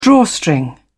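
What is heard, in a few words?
"drawstring", British English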